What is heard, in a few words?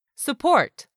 "support", english; 3000 words